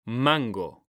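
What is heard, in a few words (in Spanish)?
"MANGO", male; mekanta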